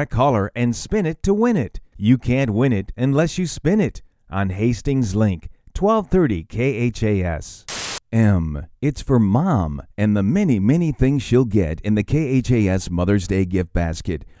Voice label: Radio Commercial Promos